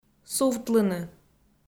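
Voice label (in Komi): "сувтлыны", Komi, female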